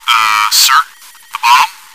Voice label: chat